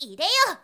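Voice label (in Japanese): "ideyo", Japanese girl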